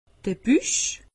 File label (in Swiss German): "buch", alsace; medecine